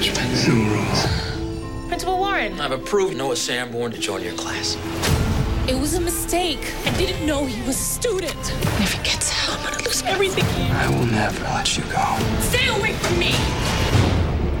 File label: boy next door tv